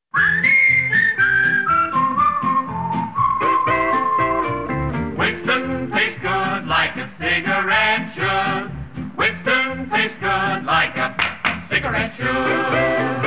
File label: TV Commercials, Cigarettes; Winston